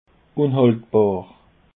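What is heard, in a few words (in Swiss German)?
"unhaltbar", Alsatian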